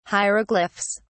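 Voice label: "hieroglyphs", English, British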